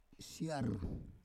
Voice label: "siar", Roon